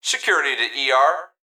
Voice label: Emergency Human Male Voice Hospital Call Security To ER; emergency effects